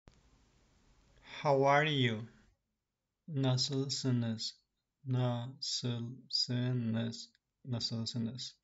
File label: how are you, Turkish; the talking turkish phrasebook